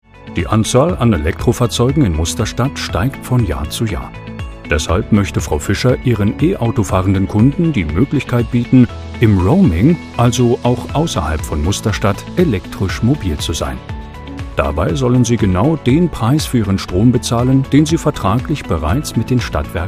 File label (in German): Hoerprobe Erklaervideo; Schleupen